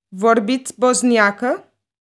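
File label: Romanian, Vorbiţi bosniacă?; Sprechen Sie Bosnisch?; Rumänisch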